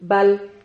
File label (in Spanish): "bal", Silabas